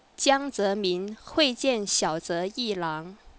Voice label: chinese; GlobalPhone